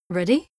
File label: Female; Skyrim Special Edition; Dawnguard Beleval